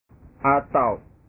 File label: "atau", bahasa